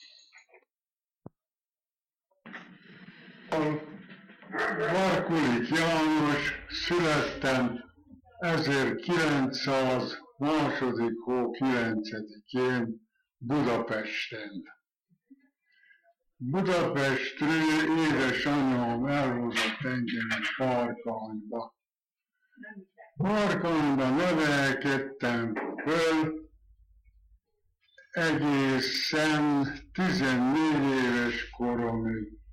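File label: dialect collection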